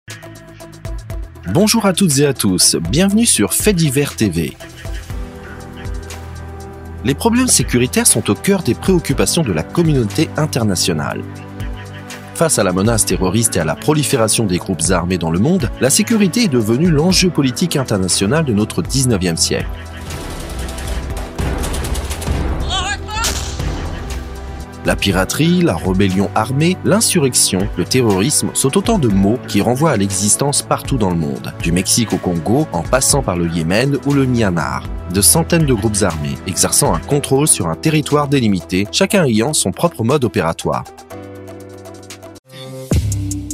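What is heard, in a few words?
French, male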